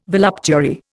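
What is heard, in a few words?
"voluptuary", Indian English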